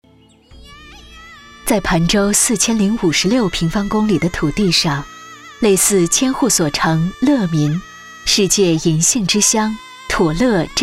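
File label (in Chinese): 专题知性旅游; 盘州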